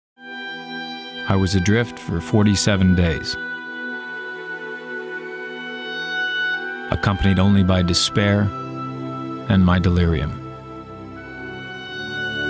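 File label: narration; further timberland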